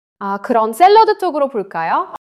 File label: neutral, monologue